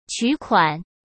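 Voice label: "取款", Mandarin Chinese; chinese flashcards; hsk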